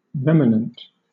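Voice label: "remanent", British English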